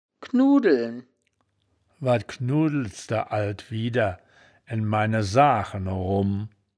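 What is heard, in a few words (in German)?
"knudeln", wittlicher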